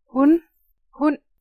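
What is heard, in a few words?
"stød", danish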